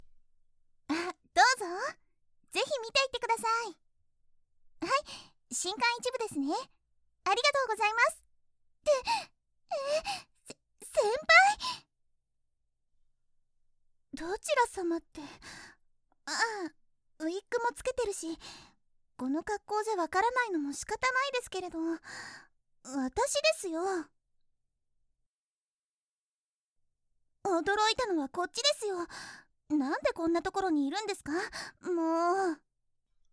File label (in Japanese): 後輩ちゃんSE無し(左耳); Garden of Pleasure コミケ出張版!; C88出張版